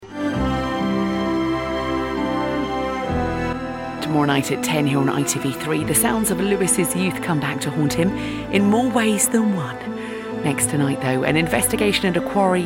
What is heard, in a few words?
Continuity